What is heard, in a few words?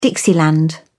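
"dixieland", British English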